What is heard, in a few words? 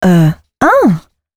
female c# 138, Dance Ultra Voice; Mutekki Media